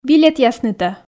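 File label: "билетъяснытӧ", Komi, female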